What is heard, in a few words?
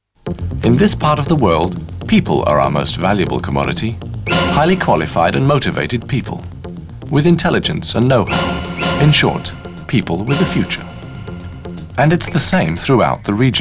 male british english commercial; ideasonora